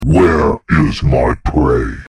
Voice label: ominous voice says